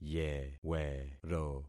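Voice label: "yewero", concatenated syllables; Affixing experiment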